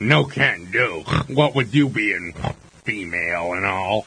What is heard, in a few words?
You Bein Female; Monolith Burger Manager